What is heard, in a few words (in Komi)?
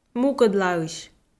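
"мукӧдлаысь", Komi, female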